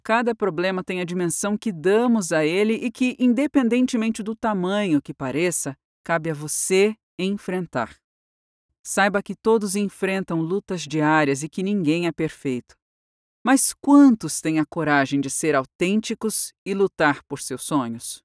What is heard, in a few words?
Portuguese Brazilian audiobooks